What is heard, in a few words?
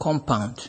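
"compound" Nigerian Pidgin, male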